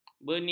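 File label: "benian", Malay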